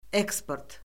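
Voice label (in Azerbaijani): "eksport", Azerbaijani; Orfoqrafiya Lüğəti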